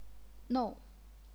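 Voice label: "nou", fourth tone, chinese pinyin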